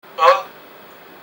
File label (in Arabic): Ö قصيره, swedish